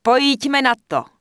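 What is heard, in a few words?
Human Female Follow Me, Czech; wowpreklad; czwow; HumanVocalFemale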